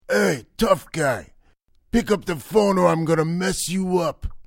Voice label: gangster warning ringtone